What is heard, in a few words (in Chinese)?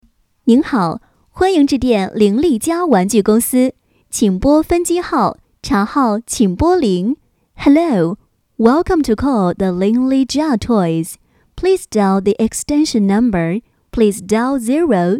中英彩铃女 甜美